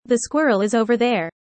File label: user fast; how tall